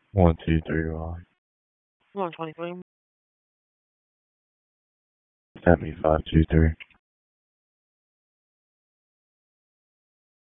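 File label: Police Southwest District Dispatch; Raleigh; wake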